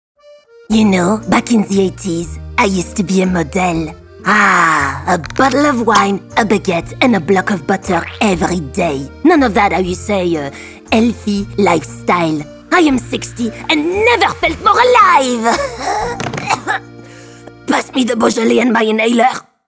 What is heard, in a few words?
Animation; GIGI